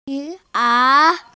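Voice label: "pi2ao3", Mandarin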